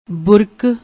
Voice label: "burak", Punjabi